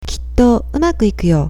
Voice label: "kitto", Japanese